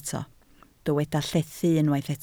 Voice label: "llethu", Welsh